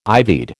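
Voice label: "ivied", American English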